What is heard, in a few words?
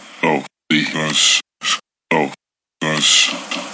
vox glitch loop; Ze Franks Pain Pack